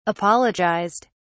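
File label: "apologized", British English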